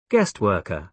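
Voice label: "guest worker", British English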